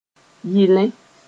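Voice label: "yɩlẽ", Mossi; may (aim)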